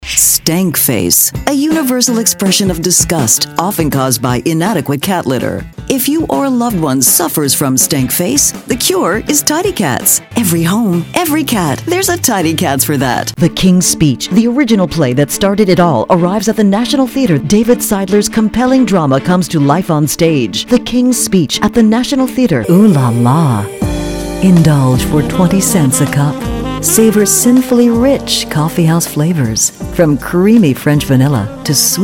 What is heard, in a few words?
Commercial